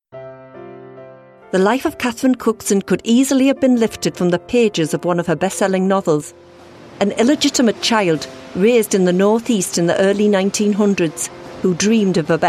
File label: Narration, regional accents